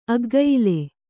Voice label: "ಅಗ್ಗಯಿಲೆ", Kannada; aggayile; noun; = (correctly) ಅಗ್ಗಾಯಿಲೆ.

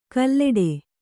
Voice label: "ಕಲ್ಲೆಡೆ", Kannada; kalleḍe; noun; a kind of plant

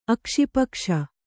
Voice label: "ಅಕ್ಷಿಪಕ್ಷ", kannada

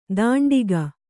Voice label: "ಧಾಂಡಿಗ", Kannada

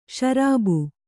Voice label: "ಷರಾಬು", Kannada

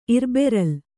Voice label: "ಇರ್ಬೆರಲ್", Kannada

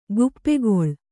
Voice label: "ಗುಪ್ಪೆಗೊಳ್", kannada

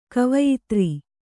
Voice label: "ಕವಯಿತ್ರಿ", kannada

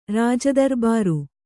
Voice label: "ರಾಜದರಬಾರು", kannada

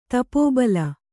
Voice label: "ತಪೋಬಲ", Kannada

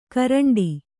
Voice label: "ಕೋರಂಟಿ", Kannada

